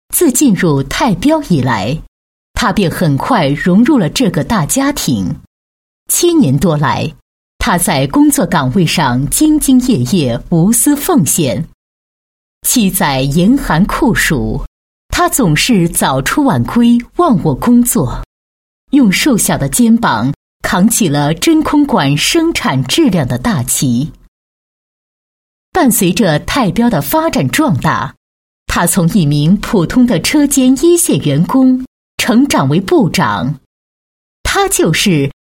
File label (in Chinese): 女-人物, 配音案例; 2015年度最美太标人